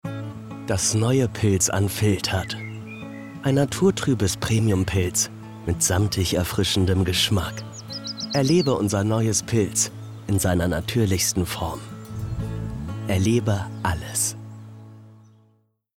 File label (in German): Werbung Bier